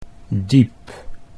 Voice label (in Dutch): "diep", Dutch